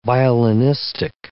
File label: "violinistic", English